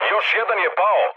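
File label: Serbian player killed enemy mood med, speech; War Thunder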